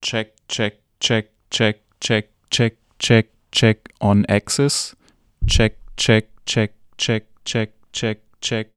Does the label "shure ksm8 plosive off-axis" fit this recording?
Yes